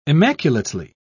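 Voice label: "immaculately", British English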